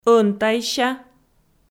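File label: "ӧнтайся", Komi, female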